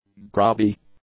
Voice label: "grubby", english; adjectives